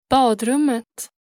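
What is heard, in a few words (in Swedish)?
"badrummet", Swedish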